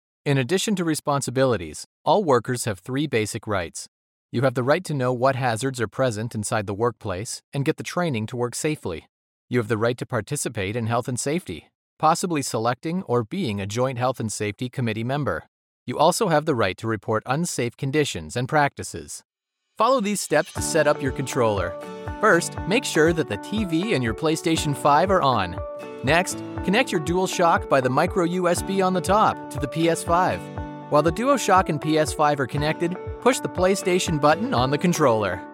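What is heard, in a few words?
Canadian English, eLearning; GoLocalise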